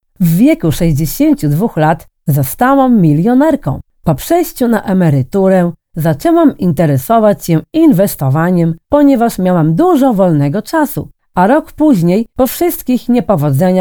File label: Polish, Female